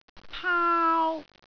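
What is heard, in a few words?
"paau1", Cantonese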